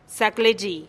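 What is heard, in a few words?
"zaklexi", Teotitlán del Valle Zapotec; saludo de mediodia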